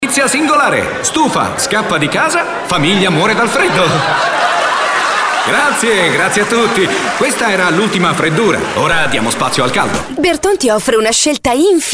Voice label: Spot Radio